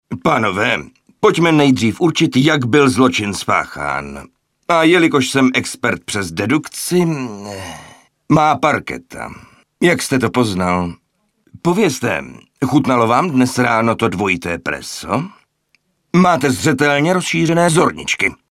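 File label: Male Czech drama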